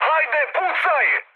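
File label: Serbian attack position mood high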